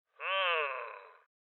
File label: Voices Loot Spotted Male Old